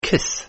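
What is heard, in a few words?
"kiss", English word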